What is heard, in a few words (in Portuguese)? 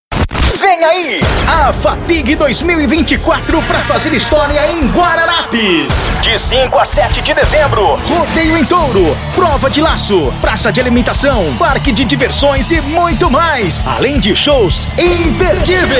chamada institucional locucao radio; fapig-guararapes